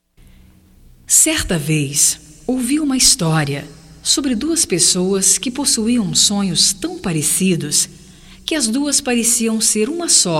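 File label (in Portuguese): SAUDADES DA FAMILIA, female, Telemensagens, Só Voz; Telemensagens 2017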